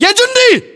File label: rank private, localized english battlechatter